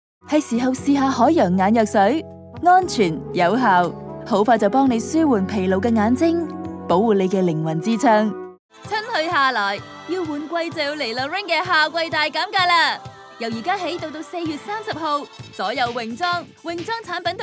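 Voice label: Cantonese Megamix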